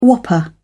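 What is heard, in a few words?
"whopper", British English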